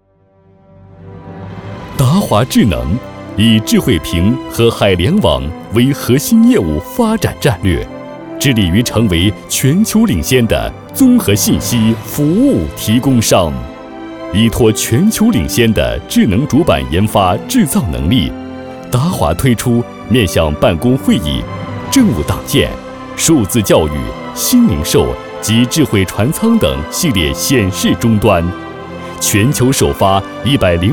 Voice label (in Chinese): 激情澎湃科技专题